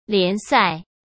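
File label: "联赛", Mandarin Chinese; hsk; chinese flashcards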